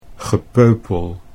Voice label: "gepeupel", Dutch; 'rabble, mob'

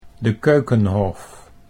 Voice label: "de Keukenhof", Dutch

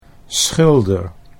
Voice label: "schilder", Dutch

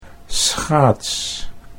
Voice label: "schaats", Dutch; 'skate'

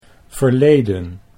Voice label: "verleden", Dutch

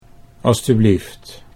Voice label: "alstublieft", Dutch

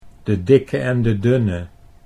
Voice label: "de dikke en de dunne", Dutch

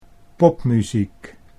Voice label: "popmuziek", Dutch

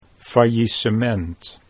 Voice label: "faillisement", Dutch

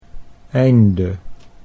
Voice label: "einde", Dutch

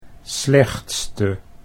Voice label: "slechtste", Dutch

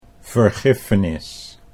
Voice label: "vergiffenis", Dutch

